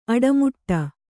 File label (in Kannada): "ಅಡಿಮುಡಿ", Kannada